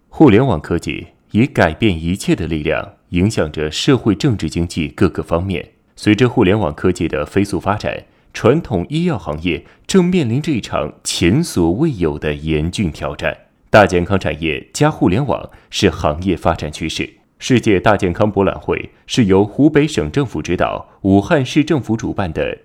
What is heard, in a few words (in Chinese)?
专题片试音; 武汉